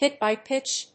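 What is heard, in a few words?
"hit by pitch", English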